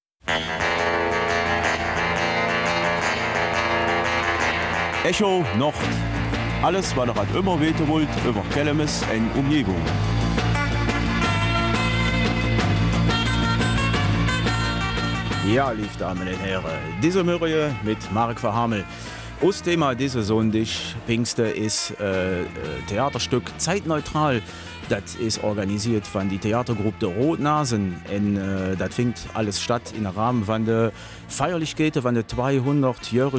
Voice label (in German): Kelmiser Mundart; Freilufttheater Zeitneutral